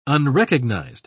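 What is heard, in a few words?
"unrecognized", British English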